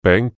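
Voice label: "beng2" Cantonese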